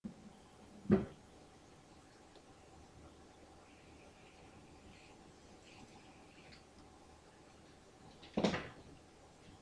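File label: "book", spoken word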